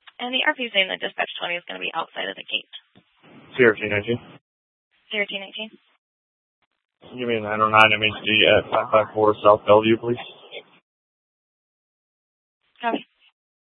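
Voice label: police dispatch, scanner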